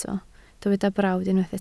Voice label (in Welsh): "brawd", Welsh